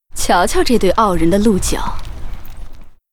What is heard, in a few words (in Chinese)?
Hippogryph with rider pissed, zh